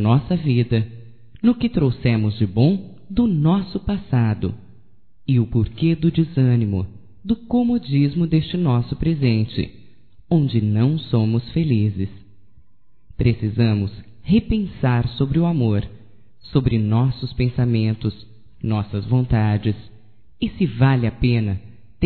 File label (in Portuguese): PRECISO DAR UM TEMPO (F); Românticas